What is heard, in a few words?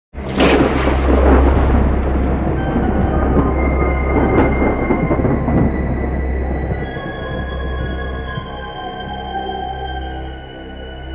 Angry; Oberon